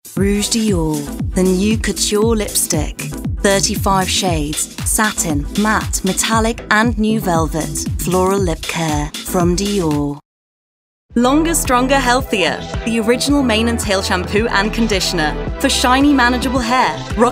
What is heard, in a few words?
English Commercial